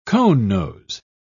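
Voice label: "conenose", British English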